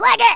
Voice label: "runaway", Angry Scots; Worms Armageddon

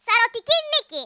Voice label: "Flawless", Greek; Worms Armageddon

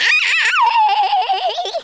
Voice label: "bungee", wacky speech

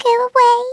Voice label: GO AWAY, Scouser

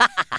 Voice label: LAUGH; Worms Armageddon

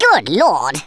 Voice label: "take cover", Speech; Worms Armageddon

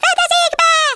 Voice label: "take cover", Hungarian; Worms Armageddon

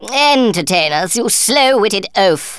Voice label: "boring", Thespian; Worms Armageddon

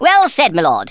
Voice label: "yes sir", Thespian; Worms Armageddon

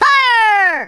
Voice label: "fire", Drill Sergeant; Worms Armageddon

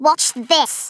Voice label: "watch this", Scouser

